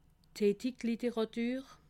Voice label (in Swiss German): "hittich", alsace